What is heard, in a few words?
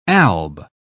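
"alb", British English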